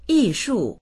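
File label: "yi4shu4", Mandarin Chinese; 艺术; 芸術; dictionary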